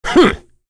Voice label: Mitra vox get, voices heroes en; kingsraid-audio